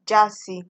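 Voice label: "jaasi", Nigerian Pidgin, female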